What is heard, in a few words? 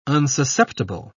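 "unsusceptible", British English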